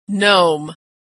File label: "nome", pronunciation; Homophones; Nome gnome